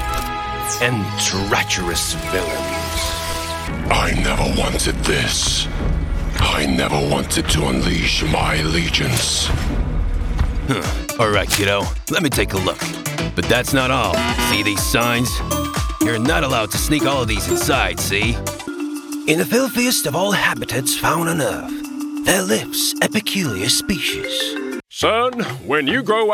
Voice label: male russian english with multiple accents and characters